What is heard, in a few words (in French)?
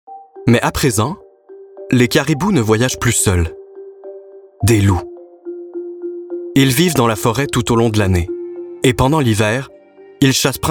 narr explicative claire soutenue, narr docu